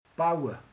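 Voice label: "épít", Hungarian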